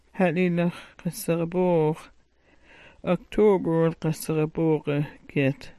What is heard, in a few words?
"Ha'lilaxgaboox", smalgyax